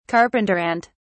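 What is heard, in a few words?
"carpenter ant", English, British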